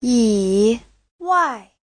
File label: "yi3 wai4", Chinese; 除了....以外 : (ngoài)....ra